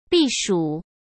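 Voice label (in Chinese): "避暑", Mandarin Chinese; hsk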